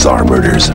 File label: VOX R, Big Beat Samples; AKAI; SPEAKS KIT